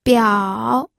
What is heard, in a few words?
"biao3", pinyin